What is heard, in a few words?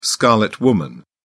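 "scarlet woman", British English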